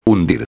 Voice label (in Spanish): "hundir", espanhol; palavra do dia